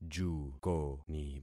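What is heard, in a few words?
"jukoni", concatenated syllables; Affixing experiment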